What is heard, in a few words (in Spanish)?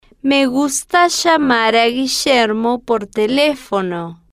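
Me Gusta Llamar A Guillermo Por Telefono F slow, spanish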